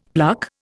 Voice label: "pluck", Indian; Dictionary